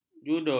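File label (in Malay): "judul", Malay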